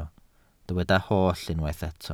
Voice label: "holl", Welsh